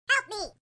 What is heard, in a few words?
loud